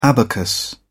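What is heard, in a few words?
"abacus", British English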